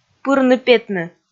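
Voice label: "пырны-петны", Komi, female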